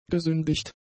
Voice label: "gesündigt", German; adjectives